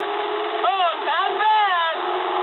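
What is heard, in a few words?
English trick mood med; War Thunder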